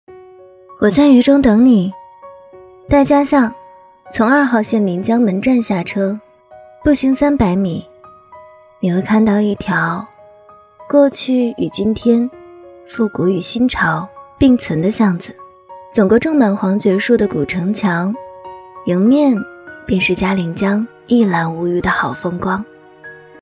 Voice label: 旁白景点介绍

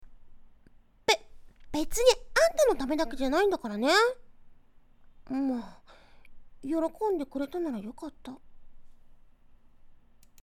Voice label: 可爱 女 样音

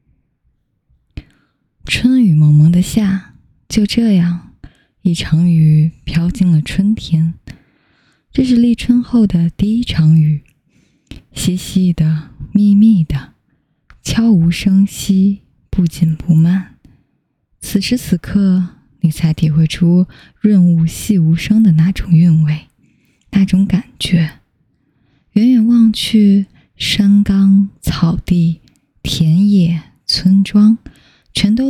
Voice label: 抒情散文

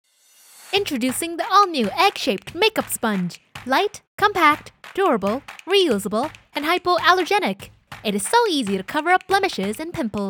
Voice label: 广告活力广告英语

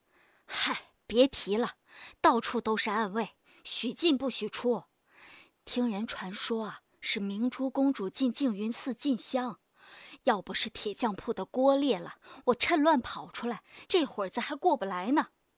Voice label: 古代 乡下 大婶

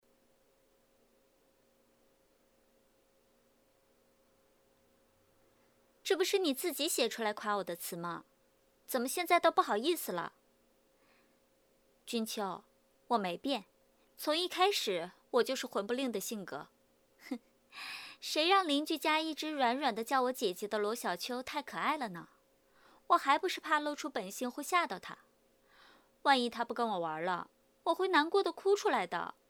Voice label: 现代校园女声

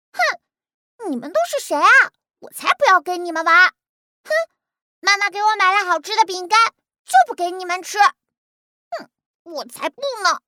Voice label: 女童蛮横小公主

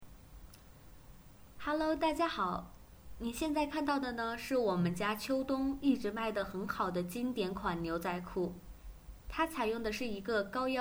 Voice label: 短视频 配音 女

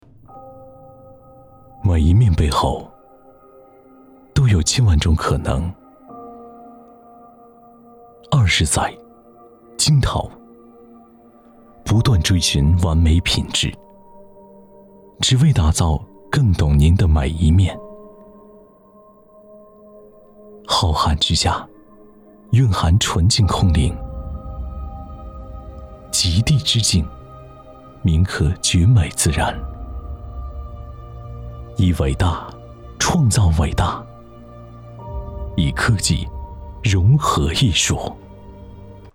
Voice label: 品质广告

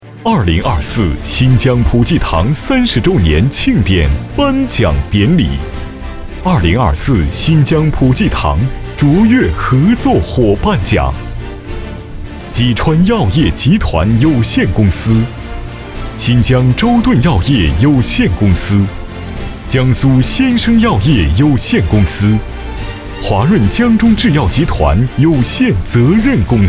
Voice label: 男颁奖企业奖颁奖名单

